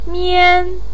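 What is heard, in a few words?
"mian", Mandarin Chinese first tone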